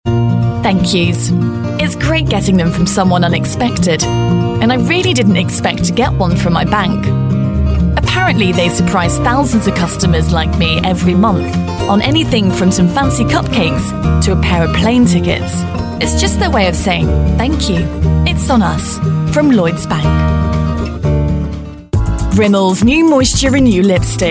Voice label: Voice Reel Mixed